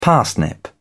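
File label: "parsnip", British English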